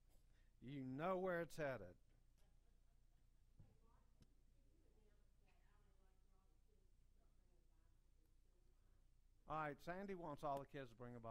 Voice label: Preaching